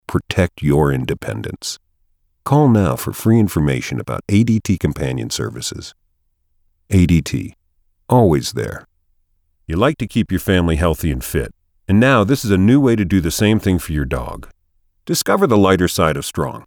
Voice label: 50s Male Commercial